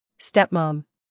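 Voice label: "humor", British; stepmom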